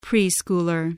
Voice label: "preschooler", voice, spelling